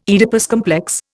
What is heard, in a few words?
"Oedipus complex", Indian